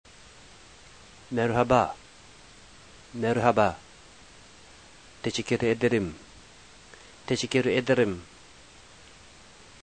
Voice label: turkish